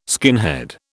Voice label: "skinhead", British

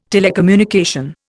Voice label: "telecommunication", Indian

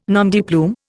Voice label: "nom de plume", Indian

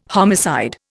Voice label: "homicide", Indian

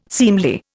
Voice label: "seemly", Indian

